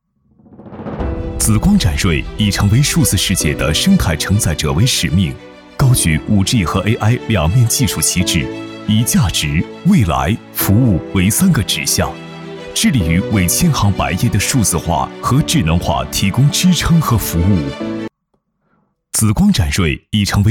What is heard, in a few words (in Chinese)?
男,宣传片,大气